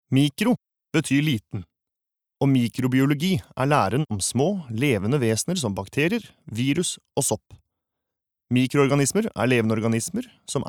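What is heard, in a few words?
Norwegian elearning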